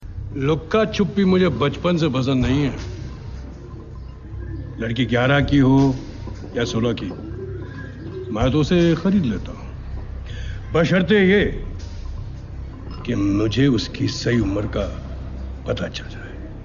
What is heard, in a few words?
Dialogue Ringtone; Rishi Kapoor